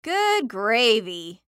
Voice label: Cartoon Voice Female; Cartoon Voices